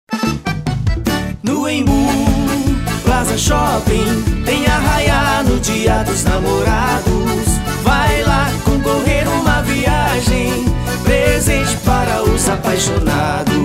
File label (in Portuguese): jingle com locucao; shopping embu